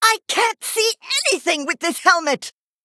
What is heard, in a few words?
Female Human Play